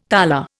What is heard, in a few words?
"tala", Indian